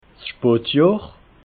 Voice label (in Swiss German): "spotjohr", alsace